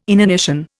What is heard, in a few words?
"inanition", Indian